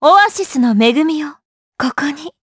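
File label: voice, Japanese; Laudia; kingsraid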